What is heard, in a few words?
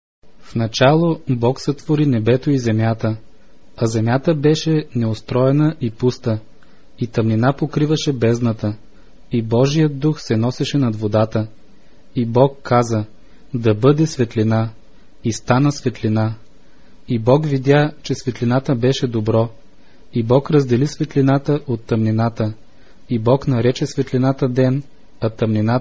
bulgarian bible